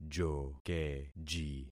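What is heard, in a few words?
"jokeji", concatenated syllables; Affixing experiment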